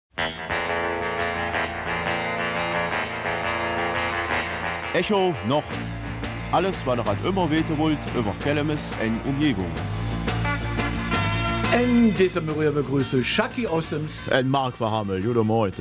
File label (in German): Kelmiser Mundart; Weihnachten, Bräuche und Erlebnisse früher und heute